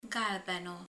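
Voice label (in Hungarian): "sárga", Hungarian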